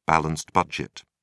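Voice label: "balanced budget", British English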